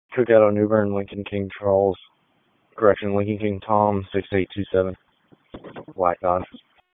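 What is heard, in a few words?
Raleigh Police Southeast District Dispatch, p25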